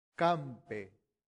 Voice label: "campe", latin; santillana

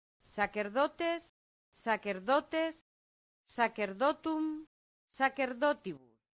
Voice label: "sacerdotes", latin; santillana

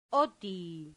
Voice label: "otti", Latin; santillana

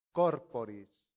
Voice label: "corporis", latin; santillana

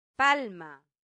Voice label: "palma", latin; santillana

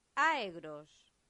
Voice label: "aegros", latin; santillana

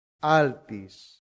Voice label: "altis", latin; santillana

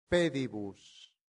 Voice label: "pedibus", Latin; santillana

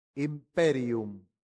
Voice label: "imperium", latin; santillana

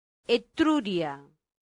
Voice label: "etruria", Latin; santillana

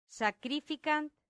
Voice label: "sacrificant", latin; santillana

